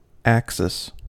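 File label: "axis", American English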